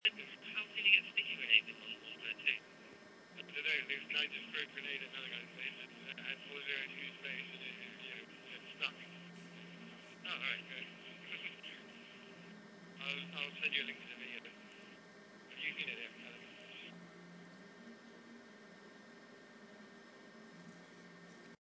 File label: typical xbox live chat